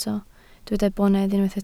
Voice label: "bonedd", Welsh